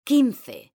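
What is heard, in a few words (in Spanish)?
"quince", female; mekanta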